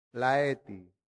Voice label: "laeti", latin; santillana